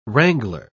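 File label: "wrangler", British English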